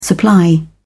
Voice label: "supply", en uk